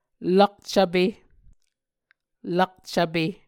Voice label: "lakcvpe", Muscogee